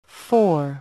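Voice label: "Four", English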